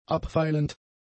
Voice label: "abfeilend", German; adjectives